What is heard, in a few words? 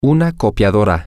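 copier, Spanish, male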